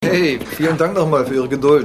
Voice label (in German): Mann am Telefon; Seinfeld